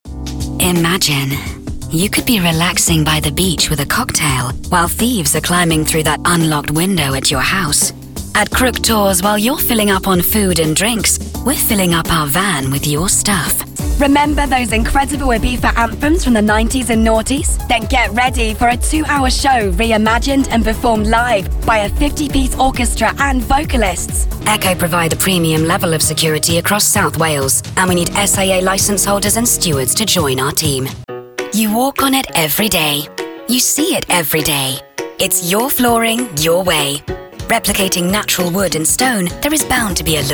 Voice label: Radio Reel

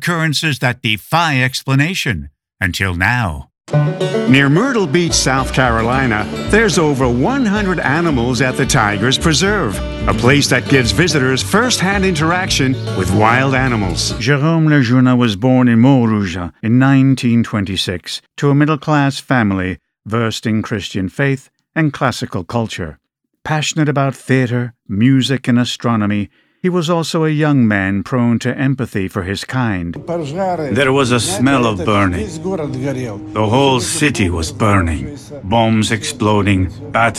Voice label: Documentary

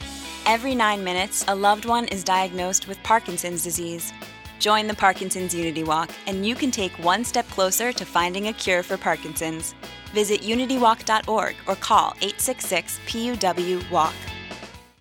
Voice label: Generic Radio

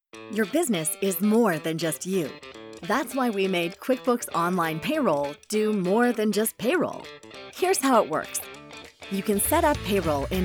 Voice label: Corporate Narration English

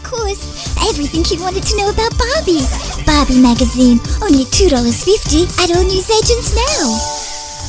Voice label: Preteen

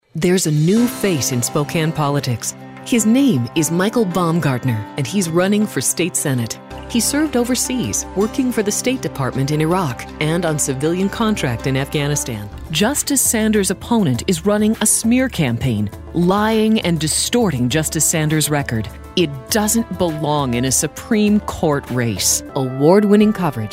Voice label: Authoritative Female

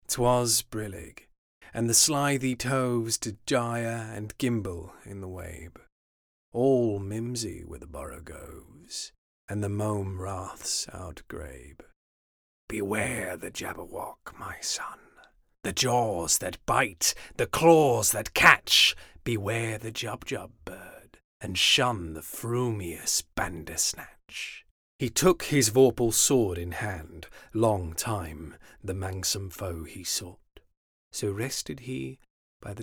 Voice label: Dry Recording Sample

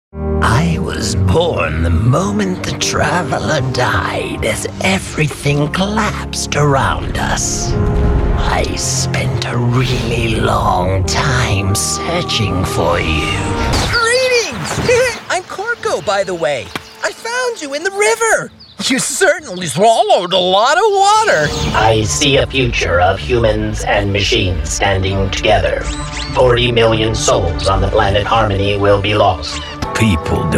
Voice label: Animation Cartoon

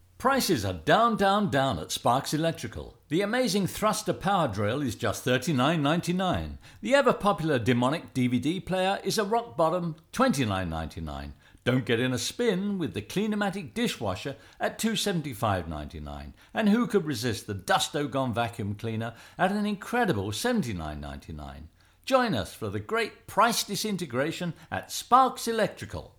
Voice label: Electrical commercial

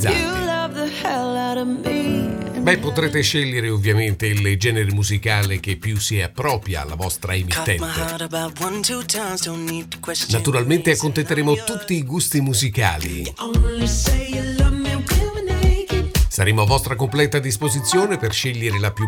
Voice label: demo podcast radio

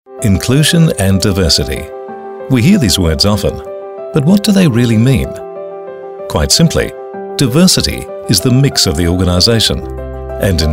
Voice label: Explainers

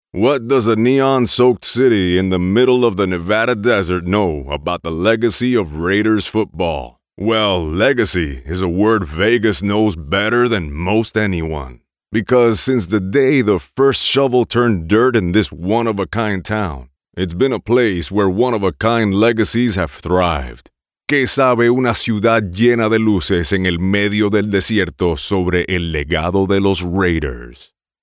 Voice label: EN SP; Raiders

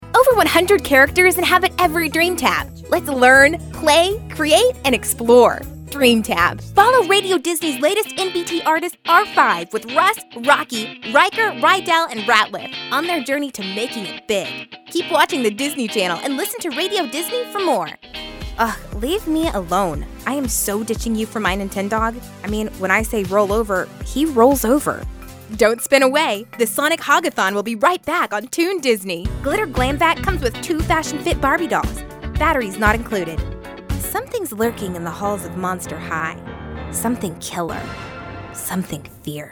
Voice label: Kids Demo

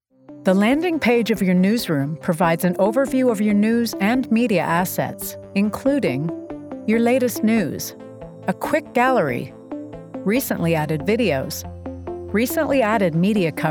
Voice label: ELEARNING w music

